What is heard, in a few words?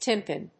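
"tympan", English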